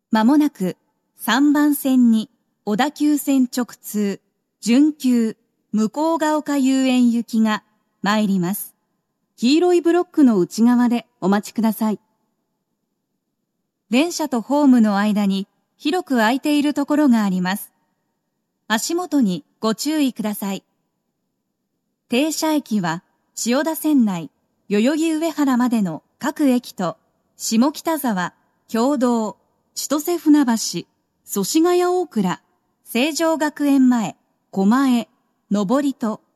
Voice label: approach semi, st announce; mukogaokayuen; hibiyast; tokyo metro